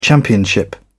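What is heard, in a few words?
"championship", British English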